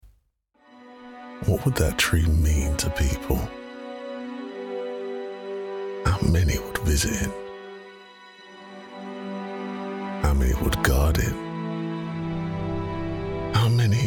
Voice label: English Voice Over calm Epic